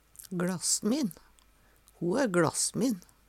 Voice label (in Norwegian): "gLasmin", Numedalsmål; Adjektiv